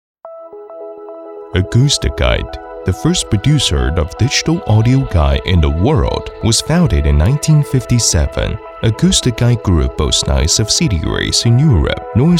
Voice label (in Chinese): 英文专题国际范